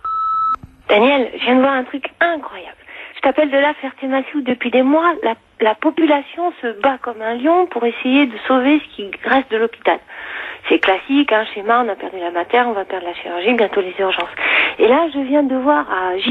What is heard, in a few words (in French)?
répondeur; Fermeture hopital